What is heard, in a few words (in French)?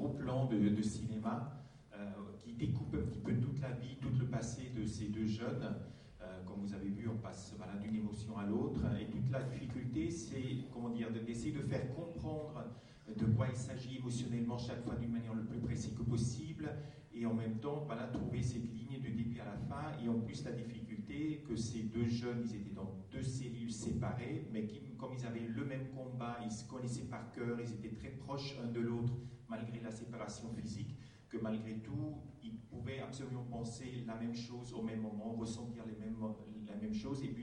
la narration; La Rose Blanche; Stephan Grögler